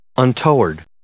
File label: "untoward", English